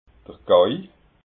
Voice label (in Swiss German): "gauil", alsace